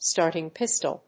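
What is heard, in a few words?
"starting pistol", English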